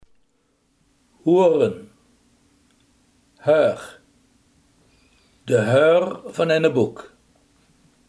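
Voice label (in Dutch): hoorn, Limburgse gesproken woordenboeken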